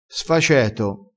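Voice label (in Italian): "sfaceto", dialettale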